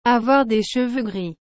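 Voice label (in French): "avoir des cheveux gris", French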